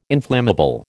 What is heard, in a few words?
"inflammable", American